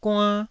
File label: "guann1", taiwanese